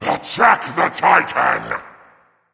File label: Attack M; smite; camazotz